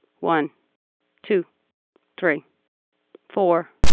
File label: digits clean